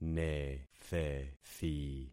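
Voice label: "nethethi", concatenated syllables; Affixing experiment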